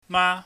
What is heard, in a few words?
"ma", Chinese